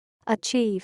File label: "achieve", English